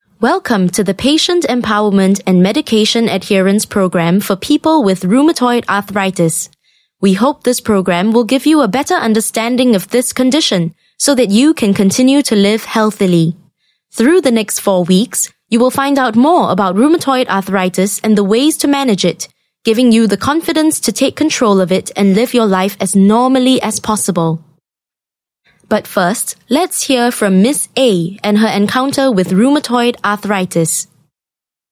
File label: Asian English Medical voice-over